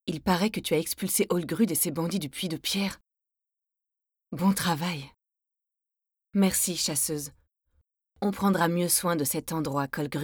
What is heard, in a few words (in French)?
dialogue adulte